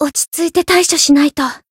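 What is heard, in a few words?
encounter fear, Japanese; saki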